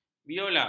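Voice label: "biola", Malay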